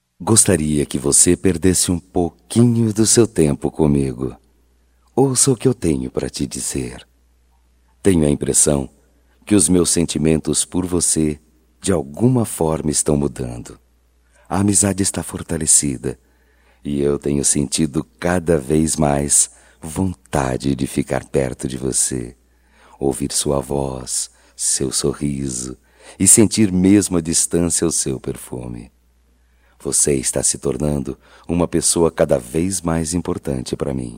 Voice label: Conquista Masculino, Só Voz; Telemensagens; Telemensagens 2017

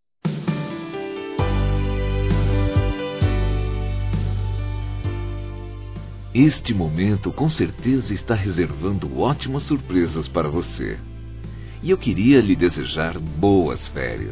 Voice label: Romantica Boa Ferias Masculino

